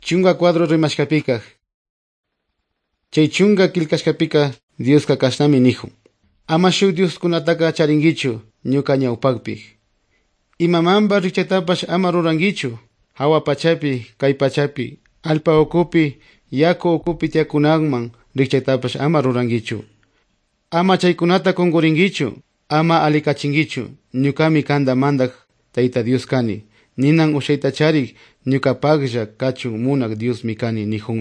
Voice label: good news quichua otovalo